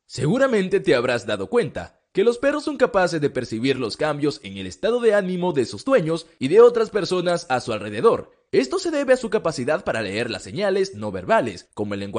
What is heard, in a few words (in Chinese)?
西班牙男声